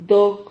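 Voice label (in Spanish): "dog", Spanish syllable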